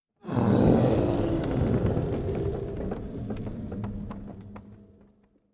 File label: Voice Exasperate; Candlegeon Voice Exasperate